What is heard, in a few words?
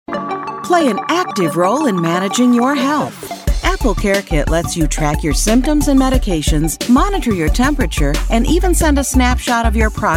commercial apple care kit health